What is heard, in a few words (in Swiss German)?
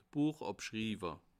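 "buechabschriwer", Bas Rhin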